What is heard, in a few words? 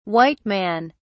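"white man", British English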